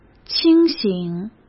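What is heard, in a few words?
"清醒", Mandarin Chinese; chinese flashcards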